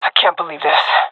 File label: scared; Source Model Packs; Counter Strike Model Pack; CS2; swat epic